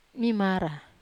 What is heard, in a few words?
"mamara", Wamesa